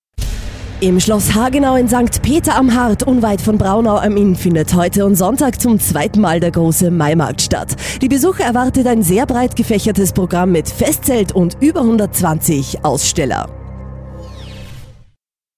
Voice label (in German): Werbung